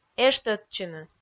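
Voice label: "эштӧдчыны", Komi, female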